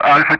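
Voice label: Speech Russian; Alphabet; Alpha-continue; DCS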